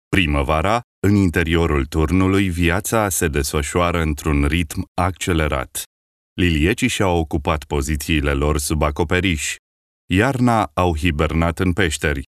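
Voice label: Romanian male Documentary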